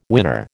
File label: "winner", American